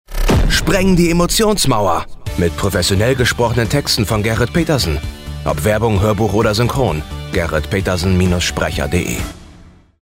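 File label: german promos, Male; German Voice Actor; born in Schleswig-Holstein in 1987 and live near Hamburg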